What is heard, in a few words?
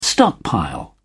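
"stockpile", English, British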